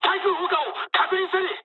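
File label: damaged aa mood med, speech japanese; War Thunder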